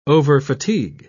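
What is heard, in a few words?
"overfatigue", British English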